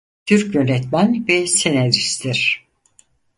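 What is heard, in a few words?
"turk yonetmen ve senaristtir", Turkish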